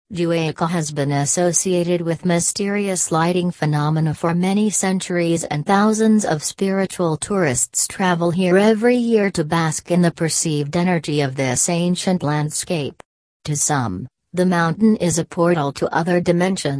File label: read aloud